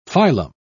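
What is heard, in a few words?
"filum", British English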